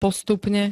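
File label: "postupne", Slovak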